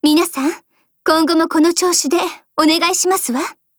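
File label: WIN, Japanese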